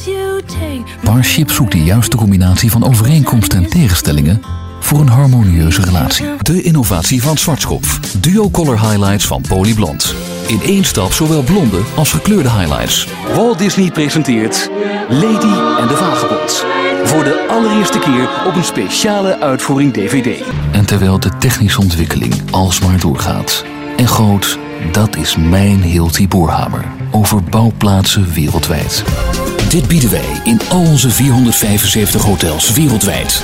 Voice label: Commercials Dutch, Danish Male

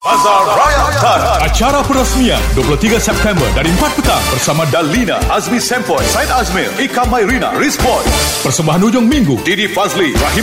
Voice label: Malay TVC, Malay Male

